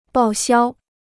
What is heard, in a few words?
"报销", Chinese